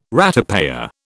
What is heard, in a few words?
"ratepayer", British